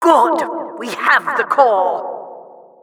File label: Announcer capture flag team captured